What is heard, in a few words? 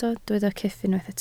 "cyff", Welsh